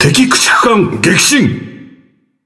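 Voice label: Japanese Speech Destroy Enemy Destroyer; World Of Warships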